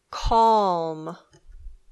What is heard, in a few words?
"calm", English